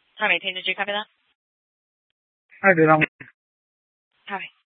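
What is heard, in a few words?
police dispatch, scanner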